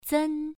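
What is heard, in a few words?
"zen1", Chinese pronunciation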